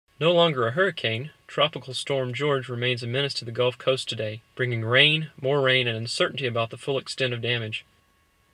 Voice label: Wideband; Xperia XZ; HFT mobile tests